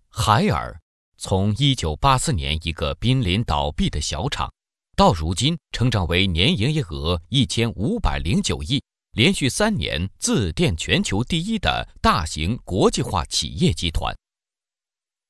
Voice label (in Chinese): 国语男声配音, 专题; 海尔; 全能录音